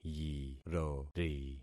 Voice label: "yirori", concat syllables; Affixing experiment

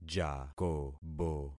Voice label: "jakobo", concat syllables; Affixing experiment

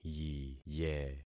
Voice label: "yiye", concat syllables; Affixing experiment

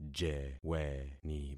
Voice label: "jeweni", concat syllables; Affixing experiment

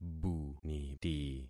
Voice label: "buniti", concat syllables; Affixing experiment

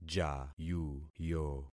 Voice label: "jayuyo", concat syllables; Affixing experiment

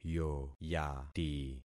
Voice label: "yoyati", concat syllables; Affixing experiment

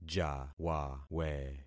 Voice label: "jawawe", concat syllables; Affixing experiment

